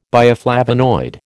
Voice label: "bioflavonoid", American